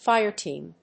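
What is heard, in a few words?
"Fireteam", English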